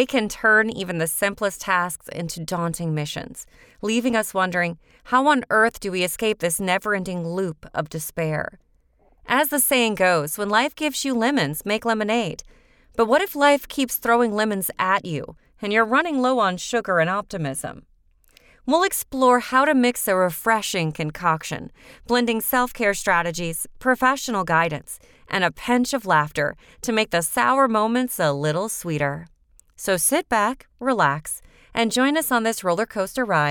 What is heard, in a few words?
anxiety podcast voiceover; Onxiety